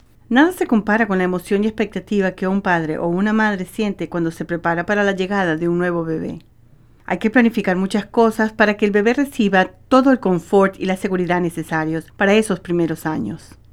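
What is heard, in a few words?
Latin American Spanish Female 40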